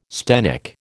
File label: "sthenic", American